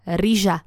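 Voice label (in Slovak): "ryza", Slovak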